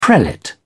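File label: "prelate", English, British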